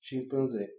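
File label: chimp desc, Bulgarian; tuxpaint-stamps; animals; mammals; apes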